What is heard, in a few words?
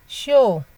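"show", Nigerian Pidgin, female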